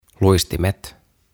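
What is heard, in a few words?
"Luistimet", Finnish; Skates; OTAVA KONSERNI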